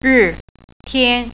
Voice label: "day", Mandarin